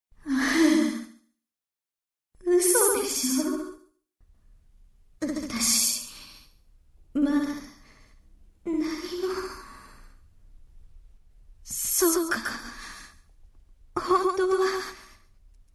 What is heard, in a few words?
Enemy Voice French Battleship Sunk; Princess